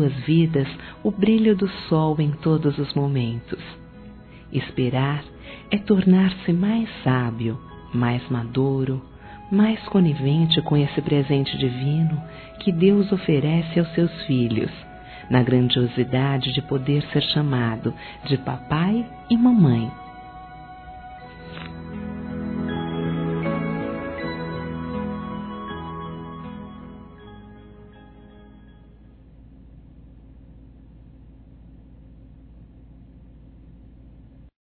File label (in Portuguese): PARAB. AO CASAL P FUTURO BEBE, fem